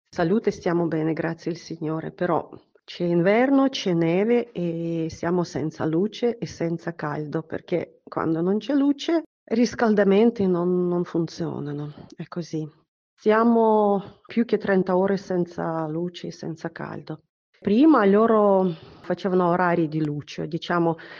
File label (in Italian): vocale ucraina